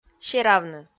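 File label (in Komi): "серавны", Komi, female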